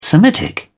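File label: "semitic", English, British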